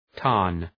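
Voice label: "tarn", English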